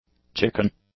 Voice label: "chicken", English; nouns

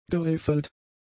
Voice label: "geriffelt", German; adjectives

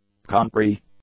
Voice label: "country", English; nouns

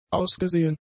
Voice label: "ausgesehen", German; adjectives

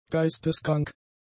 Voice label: "geisteskrank", German; adjectives

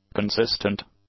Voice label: "consistent", English; adjectives

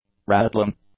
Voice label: "rattling", English; nouns

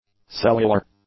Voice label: "cellular", English; adjectives

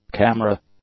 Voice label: "camera", English; nouns

